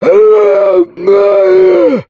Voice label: zombie enemy; STALZONE; customnpcs; stalker